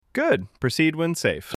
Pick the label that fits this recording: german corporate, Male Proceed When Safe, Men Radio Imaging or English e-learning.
Male Proceed When Safe